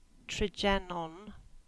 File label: Cornish; Cannonstown